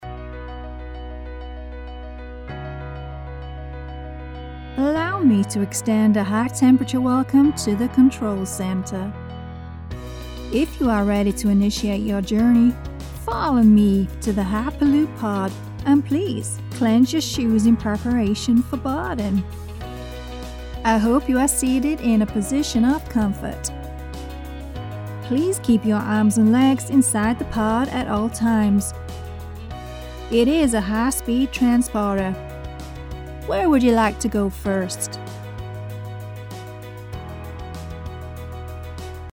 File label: with music